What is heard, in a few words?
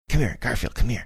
quietly saying come here; garfield